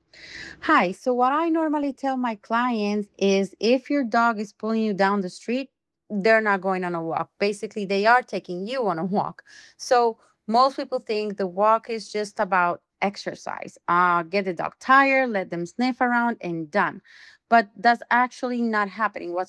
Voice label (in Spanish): Voz en off Mejorada